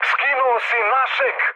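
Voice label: Serbian player killed ally mood med; speech; War Thunder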